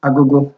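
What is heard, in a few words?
"agogo", Nigerian Pidgin, male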